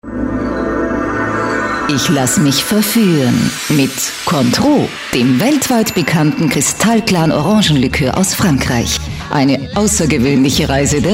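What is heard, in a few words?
german Austrian female commercial; voice demos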